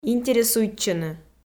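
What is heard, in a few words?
"интересуйтчыны", Komi, female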